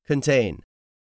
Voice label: "contain", english; 3000 words